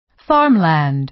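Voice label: "farmland", American English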